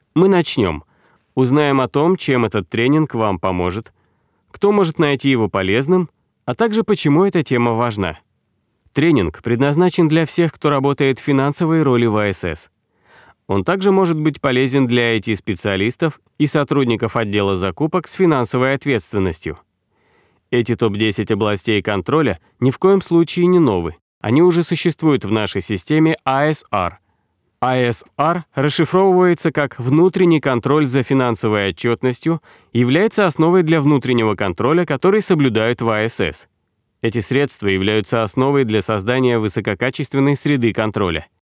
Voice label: russian e-learning